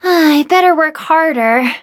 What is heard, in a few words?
defeat, en; Cookie Run